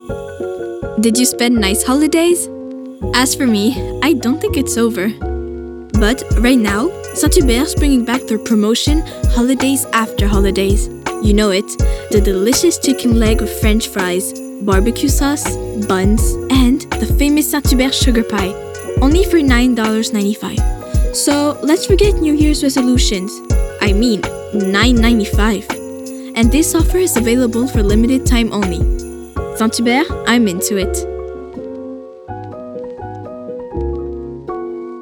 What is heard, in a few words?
Demo vocal; EN 2024 St-Hubert